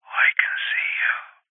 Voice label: human man english says i can see you through telephone receiver creepy; zapsplat